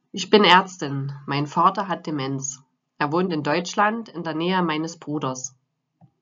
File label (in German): Aerztin Aufnahme; e-learning-kurs-dora; 4 beispiele von 4 berufen